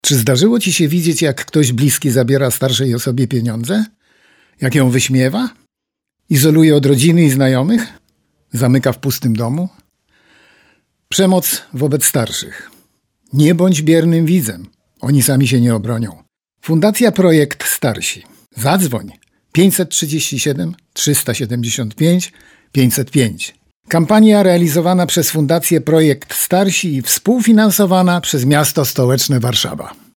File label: Radio